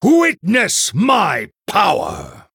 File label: Male Human Start